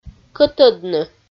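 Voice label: "кӧтӧдны" Komi, female; kpv audio dict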